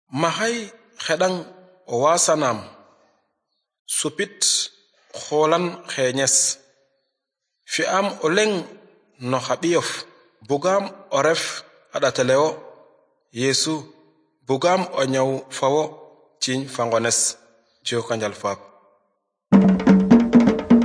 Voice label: good news serer sine, drama; seereer; dyegueme; bible